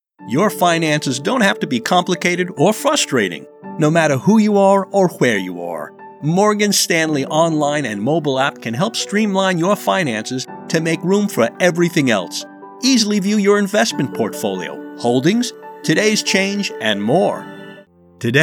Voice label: Narration